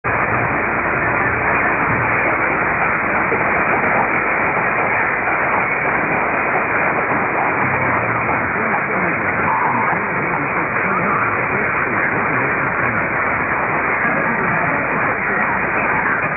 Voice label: 1296 Radio XL UK

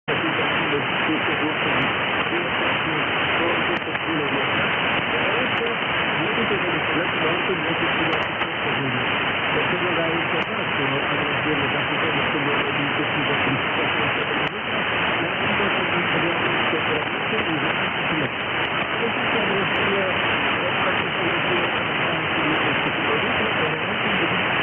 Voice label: Russian pgm; AIR GOS Bengaluru India

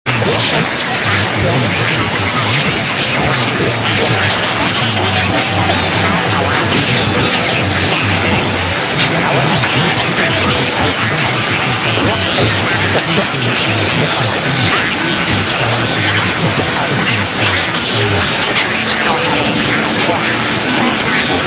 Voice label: Radio; 1341 BBC Radio 5 Ulster 2205 UTC

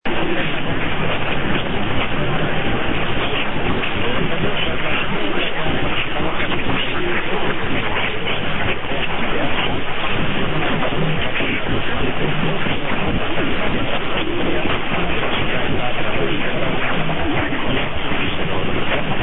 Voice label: 1413 RNE Radio 5 Spain

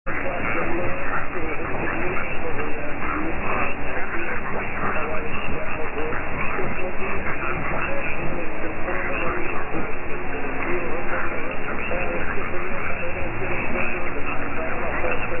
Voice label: 864 Radio Liberty in Turkmen via Armenia

